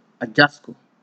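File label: "ajasco", Nigerian Pidgin, male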